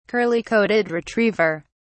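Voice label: "curly-coated retriever", British English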